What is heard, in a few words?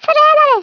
"Traitor", Swedish, Speech; 11khz; International